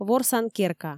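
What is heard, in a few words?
"ворсан керка", Komi, female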